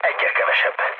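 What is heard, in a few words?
player killed enemy, mood low, Hungarian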